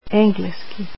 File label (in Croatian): "English", croatian